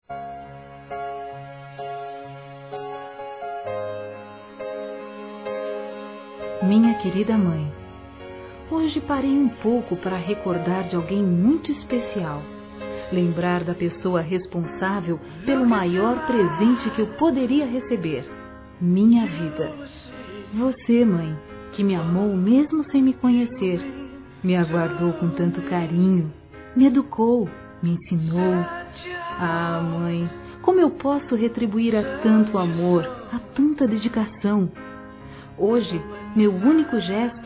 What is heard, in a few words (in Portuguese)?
OBRIGADO MÃE F, Telemensagens, Dia das Mães; Telemensagens 2017